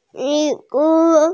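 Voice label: "li3wu4", Mandarin Chinese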